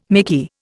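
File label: "mickey", English, Indian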